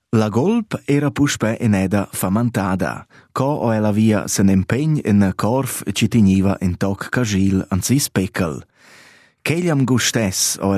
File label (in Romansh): LA VULP ERA FOMENTADA SURMIRAN